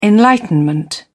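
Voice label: "enlightenment", British English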